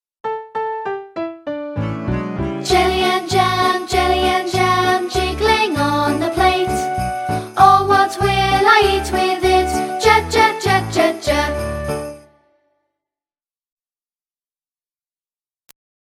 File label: Phonics J